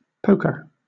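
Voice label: "poker", British English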